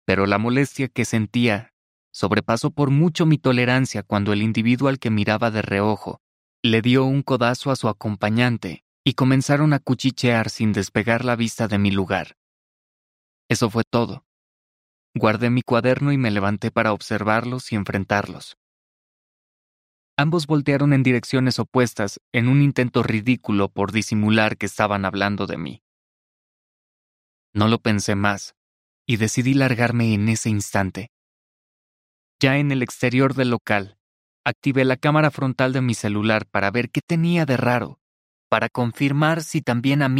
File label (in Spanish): AUDIOLIBRO; Kodiak Bear; Storytel